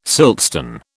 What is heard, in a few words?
"siltstone", British